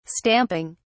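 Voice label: "stamping", British English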